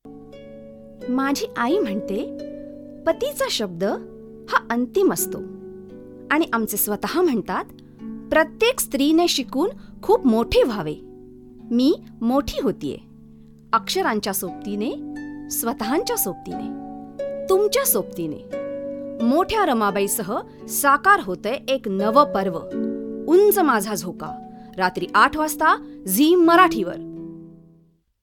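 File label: program promo marathi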